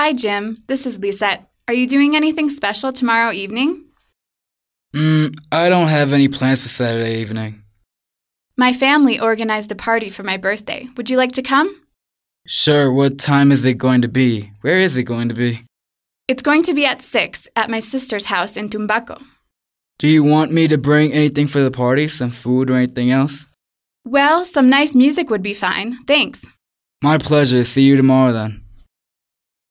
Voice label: a telephone conversation